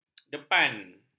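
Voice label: "depan", Malay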